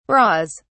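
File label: "bras", British English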